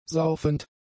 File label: "saufend", german; adjectives